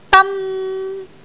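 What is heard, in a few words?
"dan1", Cantonese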